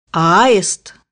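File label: "stork", Russian; bukvar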